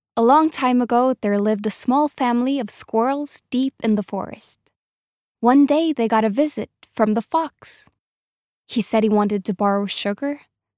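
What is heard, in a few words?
Norwegian English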